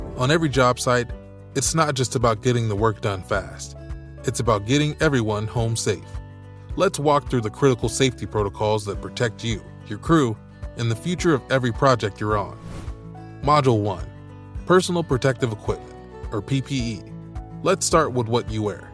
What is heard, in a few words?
Construction Safety Module, Safety Explainer Video, Friendly, conversational, approachable Male Voice; Explainer Videos